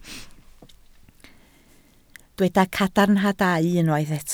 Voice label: "cadarnhadau", Welsh